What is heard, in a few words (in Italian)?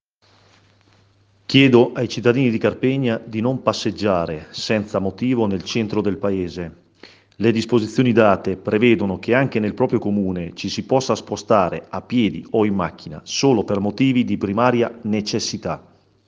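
messaggio vocale Sindaco; Sindaco; carpegna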